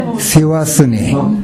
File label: "sewasune", minamiyamagata